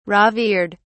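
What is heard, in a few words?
"revered", British English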